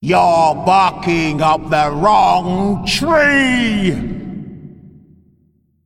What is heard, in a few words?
Female Ancient Play